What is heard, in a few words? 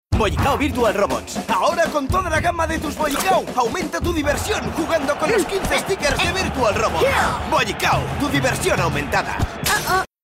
male spanish food comercial